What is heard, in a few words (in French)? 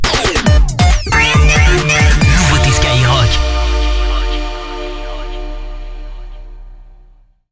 JINGLE; SKYROCK